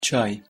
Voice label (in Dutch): "çay", Koerdisch; thee-in-andere-talen